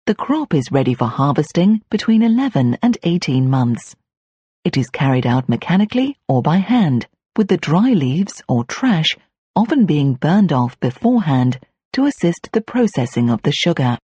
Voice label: British F, British English